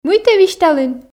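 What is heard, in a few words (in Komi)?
мый тэ висьталін, Komi, female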